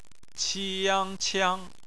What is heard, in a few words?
"qiang", Chinese phonetics